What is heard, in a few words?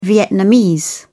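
"vietnamese", British English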